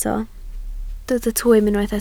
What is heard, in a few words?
"twym", Welsh